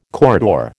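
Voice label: "corridor", American English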